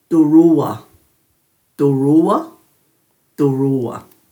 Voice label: "duruuwa", Dhurga